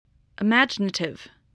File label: "imaginative", voice spelling